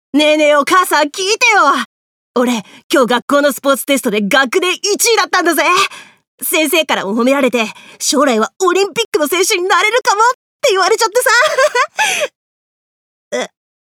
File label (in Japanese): 少年